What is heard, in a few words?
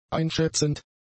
"einschätzend", German; adjectives